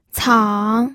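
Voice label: "cang", Mandarin Chinese, third tone; pinyin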